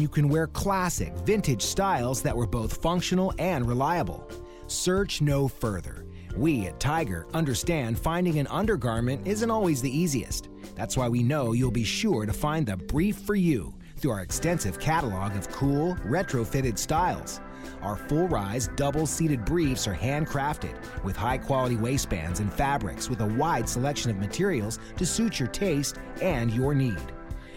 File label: Underwear Ad; Tiger